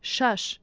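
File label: "shash", Tajik, female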